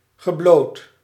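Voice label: "geblowd", Dutch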